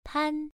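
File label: "pan", Mandarin Chinese syllable, first tone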